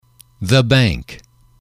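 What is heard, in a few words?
"the bank", English, male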